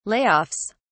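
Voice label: "Layoffs", British English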